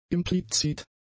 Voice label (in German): "implizit", German; adjectives